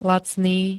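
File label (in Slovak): "lacný", Slovak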